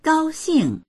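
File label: "gao1xing4", Mandarin Chinese; 高兴; うれしい; dictionary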